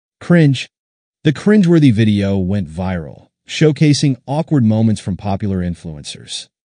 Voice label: Cringe, how to pronounce; pronounce these words